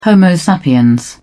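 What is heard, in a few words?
"homo sapiens", British English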